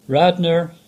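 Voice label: English; Radnor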